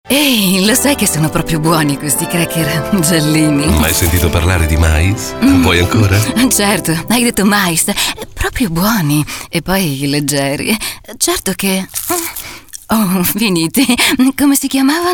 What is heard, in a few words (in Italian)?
recitato sopra le righe; galbusera